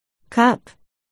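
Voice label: "cup", spoken word, articulation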